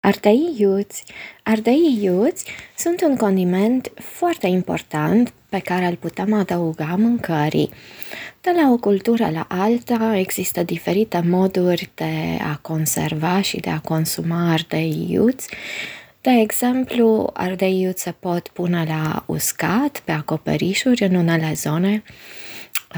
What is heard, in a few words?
Romanian audio; Chillies